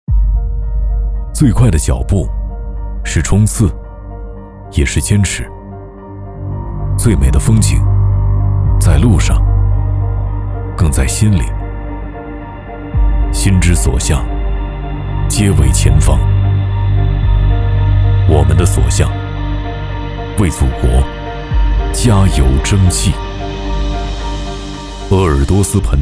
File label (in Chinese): 男 走心 低沉 叙述感的